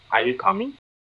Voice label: Voice Acting, es